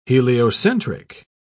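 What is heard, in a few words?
"heliocentric", British English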